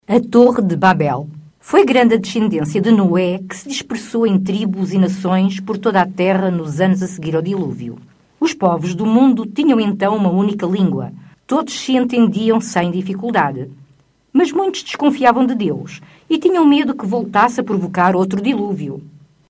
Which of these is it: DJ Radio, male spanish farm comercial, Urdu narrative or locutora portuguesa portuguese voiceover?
locutora portuguesa portuguese voiceover